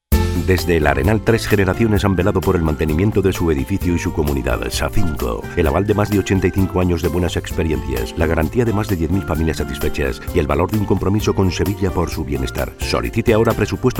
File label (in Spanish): anuncio radio; safinco